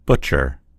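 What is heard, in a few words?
"butcher", American English; gcompris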